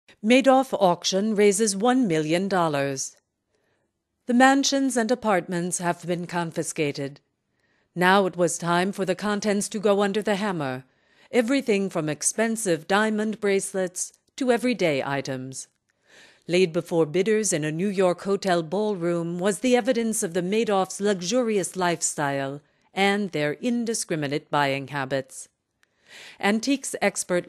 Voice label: engl news, frauen aus aller welt

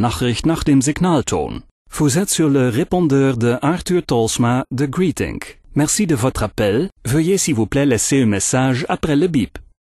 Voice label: voicemail EN NL DE FR

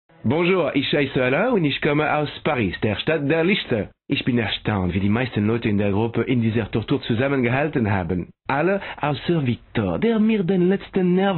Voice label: accent, maenner

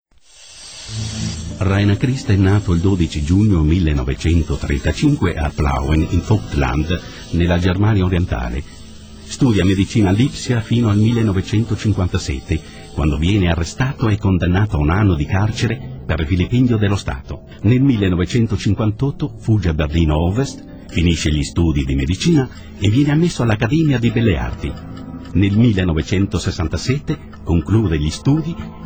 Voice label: ital doku, maenner; aus aller welt

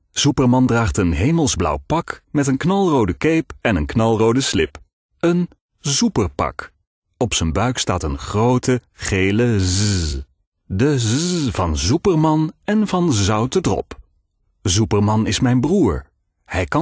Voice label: nl children story, maenner

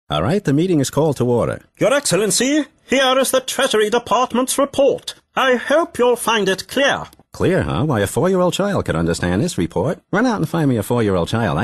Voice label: character, english

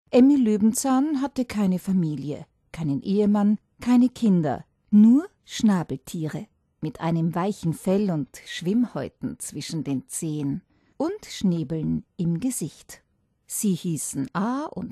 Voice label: children book, frauen

